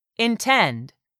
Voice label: "intend", English; 3000 words